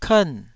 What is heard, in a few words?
"kern4", taiwanese syllable